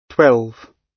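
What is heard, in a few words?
"twelve", British English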